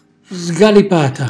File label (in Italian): "sgalipata", Calabrese; cucina calabrese